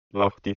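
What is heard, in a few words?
"Lahti", Finnish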